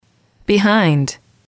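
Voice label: "behind", female, English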